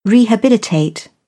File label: "rehabilitate", British; ə - ɪ; English